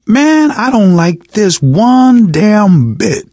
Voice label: reaction apprehensive, survivor voice, manager; source engine; left 4 dead 2